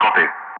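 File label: "copy", English; Ka-50; Wingman; Speech; DCS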